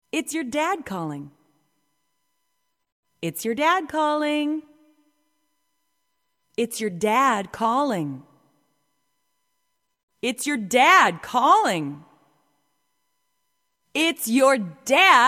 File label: female talking ringtones